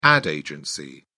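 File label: "ad agency", English, British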